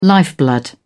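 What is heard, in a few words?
"lifeblood", British English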